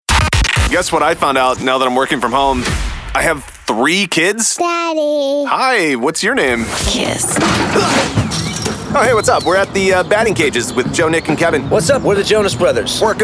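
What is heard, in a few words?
voice over; Benztown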